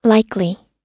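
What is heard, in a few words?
"likely", English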